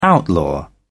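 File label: "outlaw", British English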